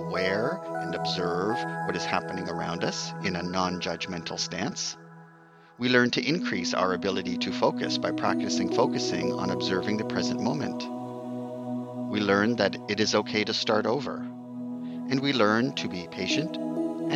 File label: Inspiration Meditation with Music